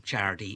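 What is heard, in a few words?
"chaRty", Australian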